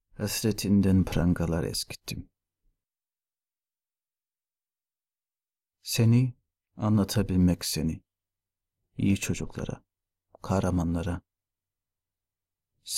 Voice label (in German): auf Tuerkisch